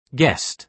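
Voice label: "guest", British English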